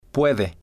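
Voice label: "puede", Spanish, male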